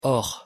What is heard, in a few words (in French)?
pronunciation fr "or"